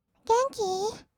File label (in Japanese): "げんきー？", kawaii voice; 挨拶